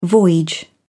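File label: "voyage", British English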